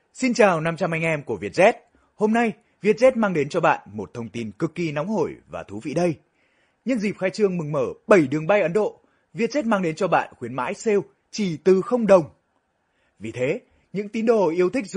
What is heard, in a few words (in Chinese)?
越南语男声